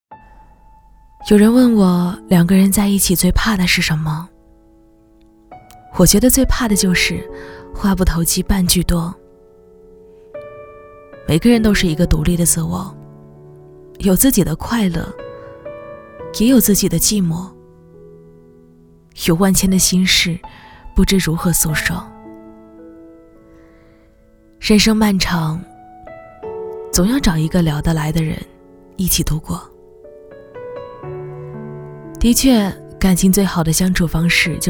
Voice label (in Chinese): 女 情感 温暖伤感; 蕊希风格